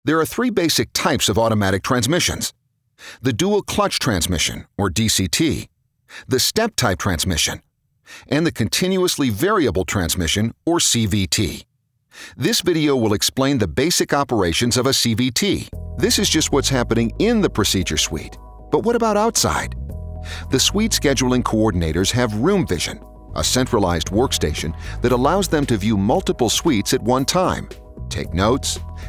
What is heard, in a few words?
e-learning, voice